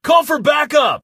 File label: call for backup, male; Kenney's Sound Pack; Voiceover